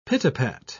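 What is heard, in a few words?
"pit-a-pat", British English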